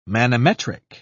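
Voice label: "manometric", English, British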